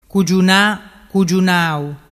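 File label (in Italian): "cugiunaau", dialect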